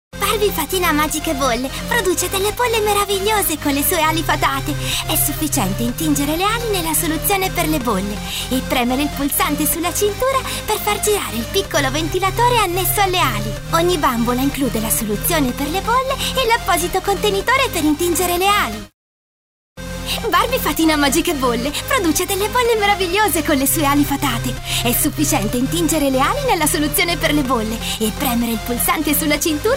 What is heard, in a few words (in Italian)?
Spot Bambina